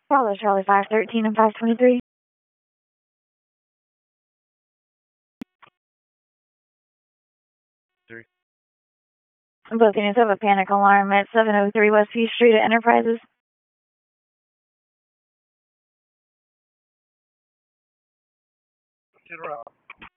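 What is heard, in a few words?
Raleigh Police Southeast District Dispatch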